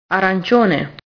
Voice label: "arancione", Italian